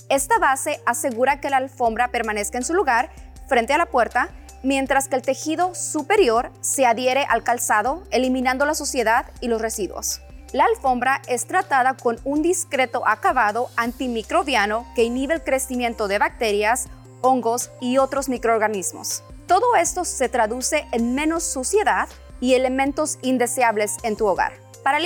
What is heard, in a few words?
Carpet Mat Spanish